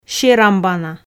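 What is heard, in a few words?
"серамбана", Komi, female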